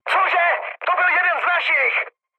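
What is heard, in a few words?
player killed ally, Czech, mood high; War Thunder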